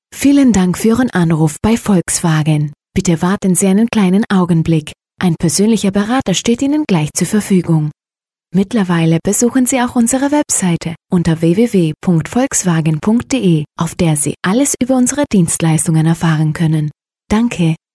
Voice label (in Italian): tedesco Femminile; messaggi vocali personalizzati